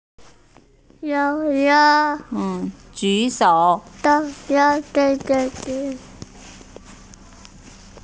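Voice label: "ju3shou3", Mandarin Chinese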